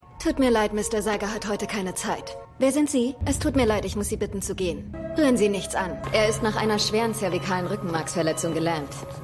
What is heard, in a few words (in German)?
Assistentin; Prodigal Son